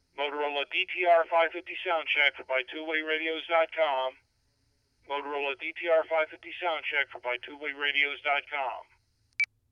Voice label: motorola dtr550, two way radio sample; radio samples